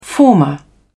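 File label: "former", English, British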